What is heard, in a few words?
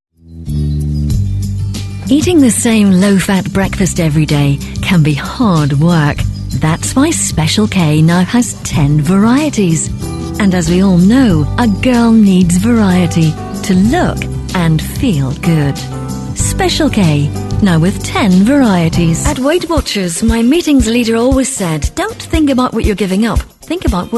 Irish Accent F neutral, accent of ireland; Admix